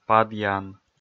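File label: "падъян", Komi, male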